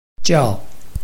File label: "jiao4", Chinese